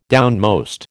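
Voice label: "downmost", American English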